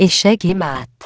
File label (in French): "mate", French; checkmate; échec et mat